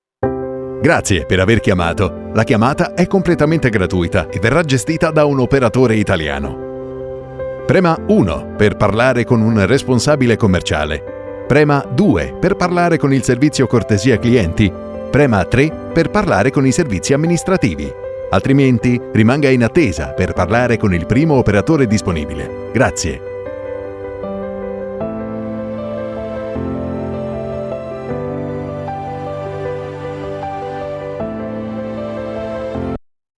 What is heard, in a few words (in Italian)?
Voce maschile messaggi centralini telefonici